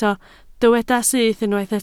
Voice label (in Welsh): "syth", Welsh